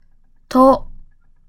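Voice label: "と", Japanese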